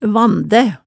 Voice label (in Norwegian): "vandæ", hemsedalsmaalet tale